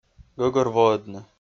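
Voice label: "гӧгӧрвоӧдны", Komi-Zyrian, male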